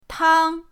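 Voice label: "tang", Mandarin pinyin, first tone; pinyindct-open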